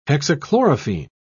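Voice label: "hexachlorophene", English, British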